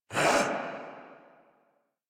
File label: Threatened Corrupted Grineer Male